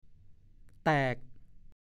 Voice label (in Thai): "แตก", ภาษาโคราช; 1. แลกเป็นเงินย่อย